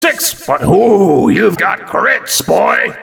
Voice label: soldier crit powerup taken, announcer soldier; open fortress